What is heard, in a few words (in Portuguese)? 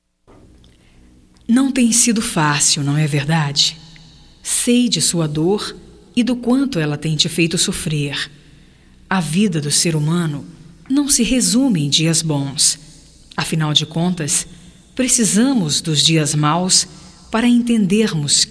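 CONFORTO GERAL, female, Telemensagens, Só Voz; Telemensagens 2017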